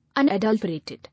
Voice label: "Unadulterated", English

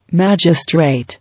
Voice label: "Magistrate", English

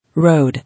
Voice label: "Road", English

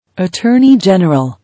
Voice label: "Attorney general", English

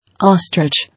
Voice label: "Ostrich", English

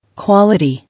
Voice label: "Quality", English

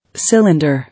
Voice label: "Cylinder", English

